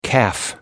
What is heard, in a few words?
Calf english